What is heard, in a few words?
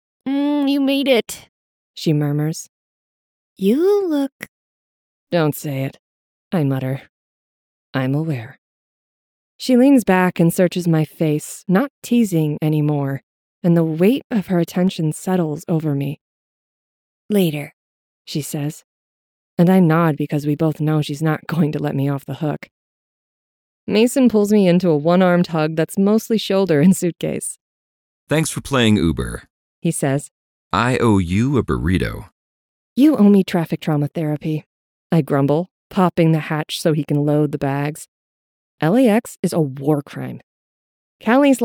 American Accent, narrators